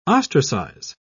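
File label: "ostracise", British English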